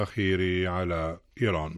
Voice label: Arabic